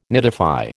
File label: "nidify", American